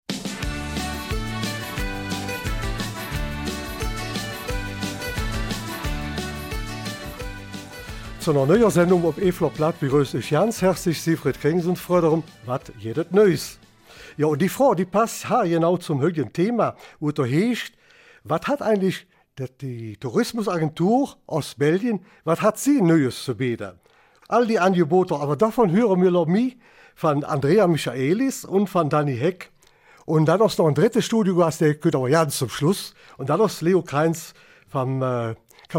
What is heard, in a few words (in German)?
Eifeler Mundart; Ostbelgien als Freizeit- und Ferienregion